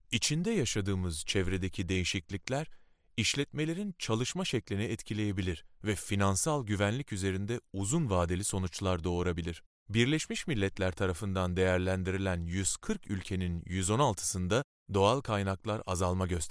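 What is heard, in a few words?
Turkish, eLearning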